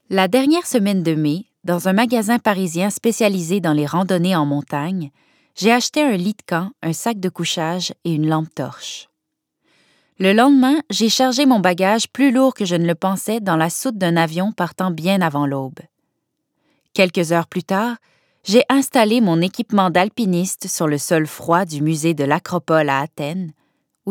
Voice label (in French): Narration